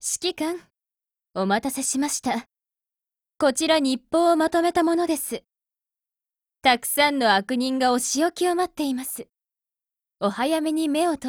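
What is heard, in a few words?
HELLO Japanese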